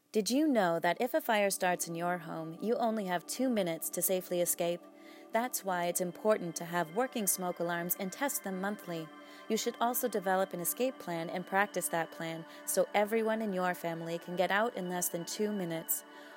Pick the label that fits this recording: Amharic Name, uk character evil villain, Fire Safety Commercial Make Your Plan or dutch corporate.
Fire Safety Commercial Make Your Plan